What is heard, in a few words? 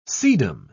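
"sedum", British English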